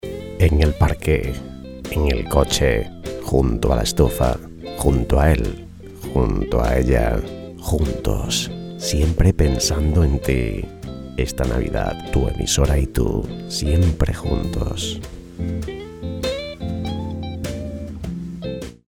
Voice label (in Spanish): locutores navidad juntos musica libre; Mensaje de felicitación navideña para emisoras de radio y de televisión. Número 8 bis . Juntos.